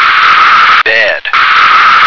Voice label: "bed", American

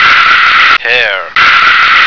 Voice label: "hair", American

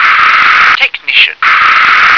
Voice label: "technician", English

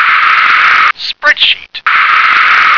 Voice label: "spreadsheet", English

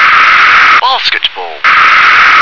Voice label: "basketball", English

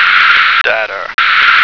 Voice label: "data", American

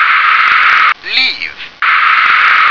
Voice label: "leave", English

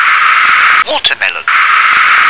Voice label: "watermelon", English

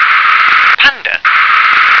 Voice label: "panda", English

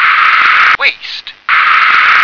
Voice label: "waist", English

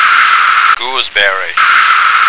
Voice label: "gooseberry", American

